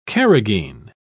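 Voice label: "carragheen", English, British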